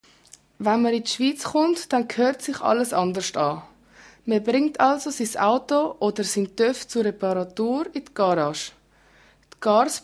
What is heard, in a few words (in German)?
Schweizerisch